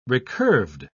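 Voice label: "recurved", British English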